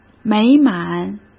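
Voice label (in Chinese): "美满", Mandarin; chinese flashcards; hsk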